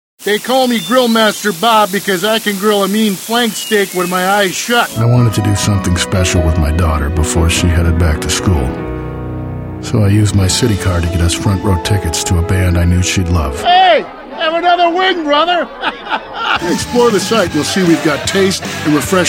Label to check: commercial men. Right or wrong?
Right